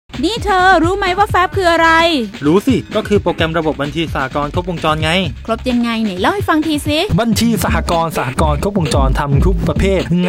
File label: RADIO SPOT